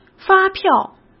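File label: "发票", Mandarin Chinese; hsk; chinese-flashcards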